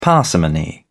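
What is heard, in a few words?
"parsimony", British English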